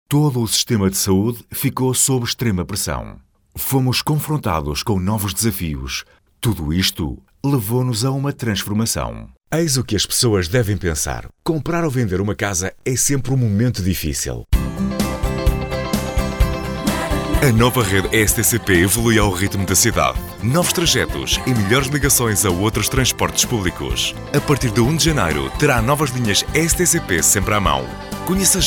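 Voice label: Portuguese, male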